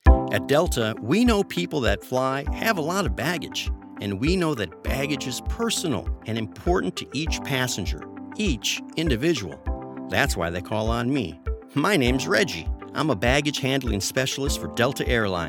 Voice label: ivr phone system voiceover corporate; Delta Baggage